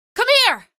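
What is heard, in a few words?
citizen come here, female; vo; npc; source engine; episodic; episode 1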